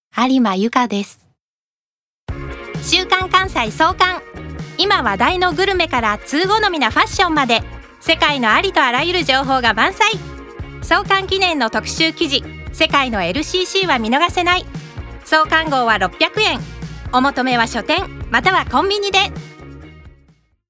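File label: commercial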